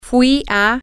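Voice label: "I went to", Spanish, female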